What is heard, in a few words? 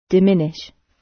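"diminish" British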